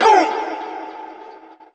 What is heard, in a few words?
"boom", Voice